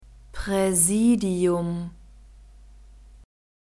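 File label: "Präsidium", German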